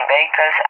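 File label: "vehicles", English, SPAIN; DCS; Allied Flight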